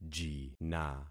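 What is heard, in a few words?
"jina", concatenated syllables; Affixing experiment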